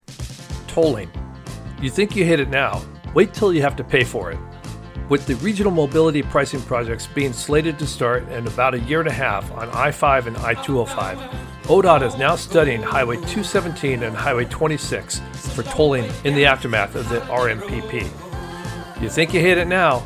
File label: Commercial; Oregon Tolling Updates; KBNP AM